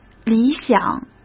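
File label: "理想", Mandarin Chinese; hsk; chinese-flashcards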